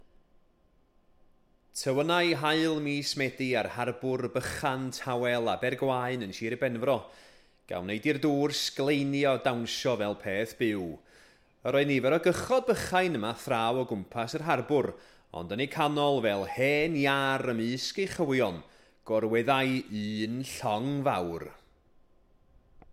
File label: welsh